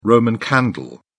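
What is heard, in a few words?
"roman candle", English, British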